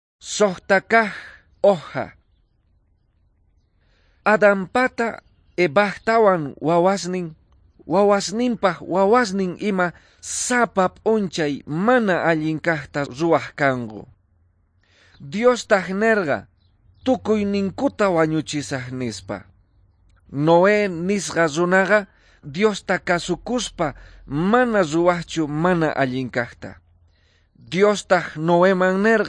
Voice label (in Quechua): quechua bolivian cochabamba; good news